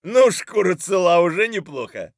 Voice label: mutter; Escape from Tarkov; scav4